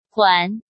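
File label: "Huán", Chinese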